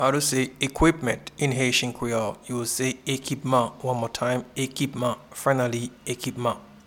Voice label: Equipment in Haitian Creole Ekipman, Pronounce "Ekipman" in Haitian Creole audio; “Equipment” (English) translates as “Ekipman” in Haitian Creole